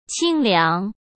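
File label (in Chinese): "清凉", Mandarin Chinese; chinese flashcards; hsk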